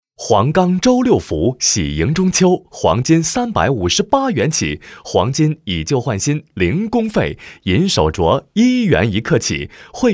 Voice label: 男 中文 广告; 周六福

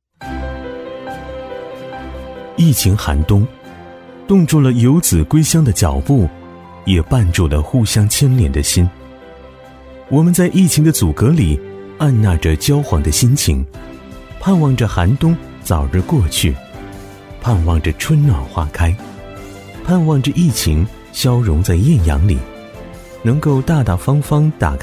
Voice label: 男-公益; 寒冬将尽

